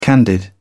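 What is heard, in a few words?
"candid", British English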